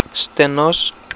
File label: "stenos", Greek